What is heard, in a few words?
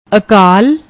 "akaal", Punjabi; ਅਕਾਲ